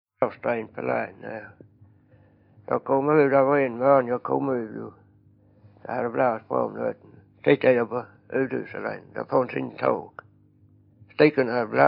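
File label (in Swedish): Vånga Skåne, Svenska sagor dialekter; Folkminnen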